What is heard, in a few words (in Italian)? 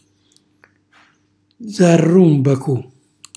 "zarrùmbacu", calabrese; calabrone; cucinacalabrese; glossario